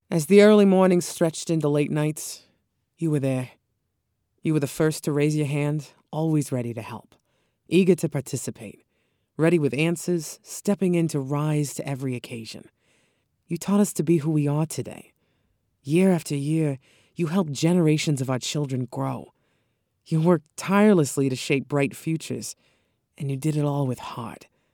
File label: Brooklyn Accent